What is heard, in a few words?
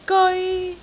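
"geoi1", Cantonese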